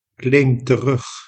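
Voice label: "claim terug", Dutch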